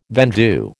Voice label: "vendue", American

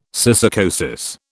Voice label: "syssarcosis", British

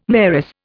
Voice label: "mayoress", Indian

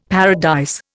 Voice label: "paradise", Indian; Dictionary

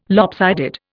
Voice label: "lopsided", Indian

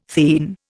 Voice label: "theine", Indian

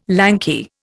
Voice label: "lanky", Indian

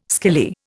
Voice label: "skilly", Indian

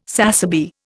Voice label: "sassaby", Indian

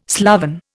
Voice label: "sloven", Indian

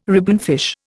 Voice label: "ribbonfish", Indian

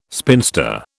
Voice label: "spinster", British

